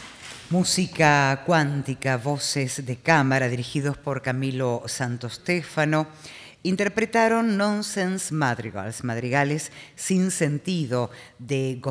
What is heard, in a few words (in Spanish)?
Locutora